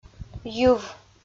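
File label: "йыв" Komi, female; audio dict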